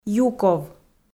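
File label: "юков", Komi, female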